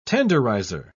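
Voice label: "tenderizer", British English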